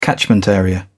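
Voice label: "catchment area", British English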